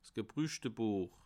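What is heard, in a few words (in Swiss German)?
"gebruchte", Bas Rhin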